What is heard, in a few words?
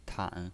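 "tan", Mandarin Chinese pinyin, third tone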